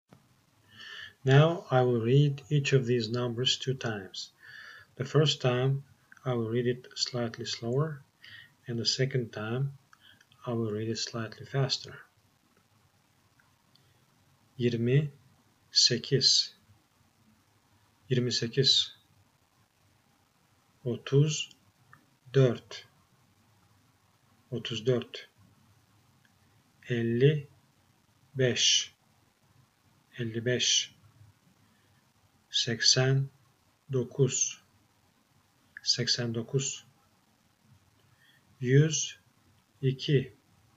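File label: counting 10s 100s exercise, Turkish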